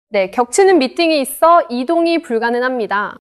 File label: neutral